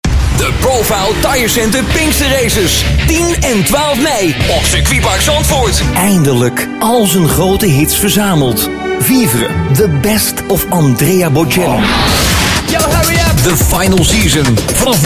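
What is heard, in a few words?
Dutch, male, commercial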